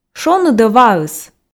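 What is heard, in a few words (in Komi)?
шоныд-ӧ ваыс, Komi, female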